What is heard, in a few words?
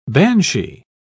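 "banshie", British English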